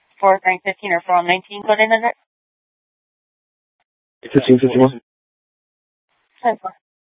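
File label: police dispatch, Scottsdale PD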